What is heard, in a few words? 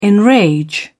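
"enrage", British English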